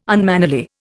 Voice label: "unmannerly", Indian

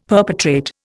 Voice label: "perpetrate", Indian; Dictionary

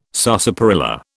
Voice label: "sarsaparilla", British

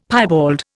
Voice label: "piebald", Indian; Dictionary

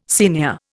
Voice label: "senior", Indian

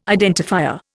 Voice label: "identifier", Indian

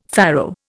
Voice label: "farrow", Indian

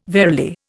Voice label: "verily", Indian

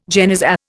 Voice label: "genizah", Indian

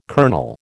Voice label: "colonel", American

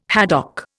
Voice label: "haddock", Indian

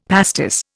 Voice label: "pastis", Indian; Dictionary

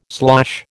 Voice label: "slosh", American

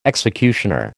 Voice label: "executioner", American

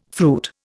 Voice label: "fruit", Indian

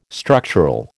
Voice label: "structural", American

